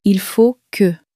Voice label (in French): il faut que, common french words